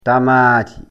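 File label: "tamaati", Fula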